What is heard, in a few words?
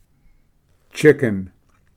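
"chicken", English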